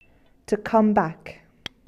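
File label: "come back", English; verb; fichiers sons du vocabulaire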